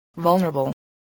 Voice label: "vulnerable", spelling